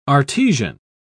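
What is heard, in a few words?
"artesian", English, British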